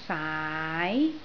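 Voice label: "saai2" Cantonese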